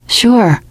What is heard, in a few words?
you are welcome, teen girl; source engine; left 4 dead 2; survivor